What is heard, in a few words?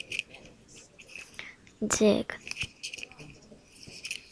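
"jig", spoken word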